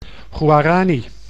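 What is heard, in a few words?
"Guarani", Dutch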